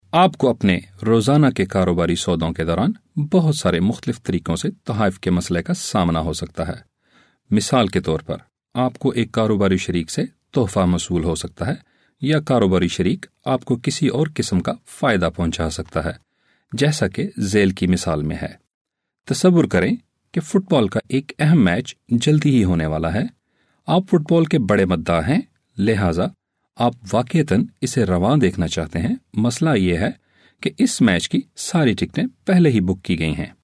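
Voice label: Urdu, male, corporate; voice-over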